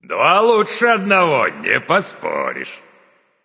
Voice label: kill, russian; caster